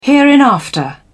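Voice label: "hereinafter", British English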